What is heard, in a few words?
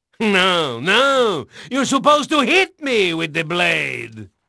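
Portuguese male, voice; Pirates Of The Caribbean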